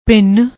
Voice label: "bhinn", Punjabi; ਭਿੰਨ

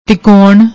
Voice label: "teekon", Punjabi

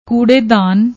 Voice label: "koodedaan", Punjabi; ਕੂੜੇਦਾਨ

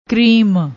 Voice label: "kreem", Punjabi; ਕਰੀਮ

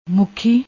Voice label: "mukhi", Punjabi

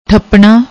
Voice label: "thapnaa", Punjabi

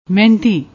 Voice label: "mehandi", Punjabi; ਮਹਿੰਦੀ

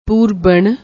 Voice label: "poorban", Punjabi

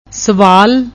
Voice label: "swal", Punjabi

